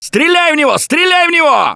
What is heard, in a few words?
battlecry tank, russian; Gambler